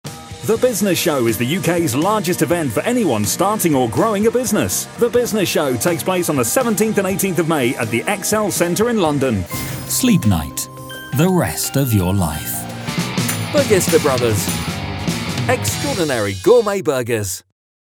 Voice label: short mixed showreel